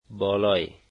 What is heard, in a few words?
above, Dari